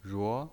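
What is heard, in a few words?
"ruo", Mandarin Chinese pinyin voice, second tone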